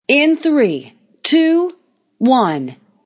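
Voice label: Broadcast Female Count Down, A female counts down in a broadcast situation.; Talking Sound Effects; Voice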